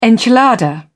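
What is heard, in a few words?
"enchilada", British English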